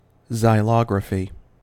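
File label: "xylography", US English; pronunciations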